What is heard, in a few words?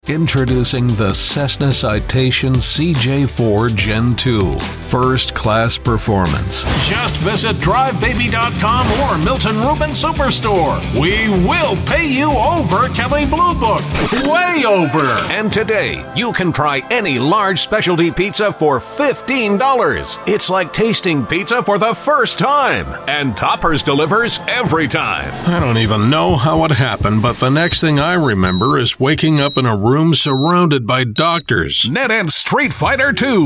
Voiceover Demo